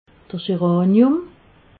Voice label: "scheranium", alsace

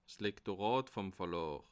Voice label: "lektorat", alsace

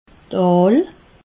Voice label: "aal", alsace

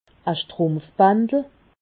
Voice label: "strumpfbandel", alsace

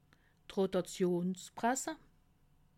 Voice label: "rotationsprasse", alsace

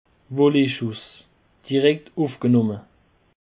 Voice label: "voleeschuss", alsace

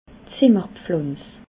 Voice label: "zimmerpflanz", alsace

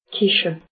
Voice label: "kiche", alsace

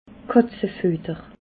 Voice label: "katzefuetter", alsace

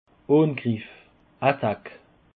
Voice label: "angriff", alsace

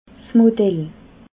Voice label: "modell", alsace

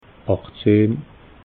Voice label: "achtzehn", alsace

